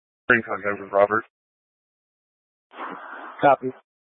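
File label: Incident Tac 3, Scanner audio recording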